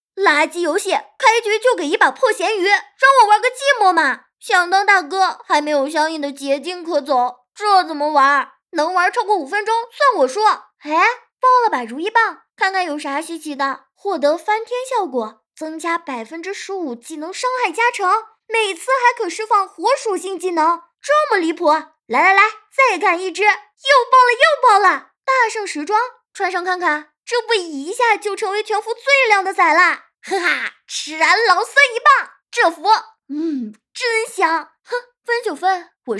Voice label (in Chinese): 女 样音